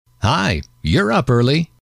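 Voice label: you're up early, male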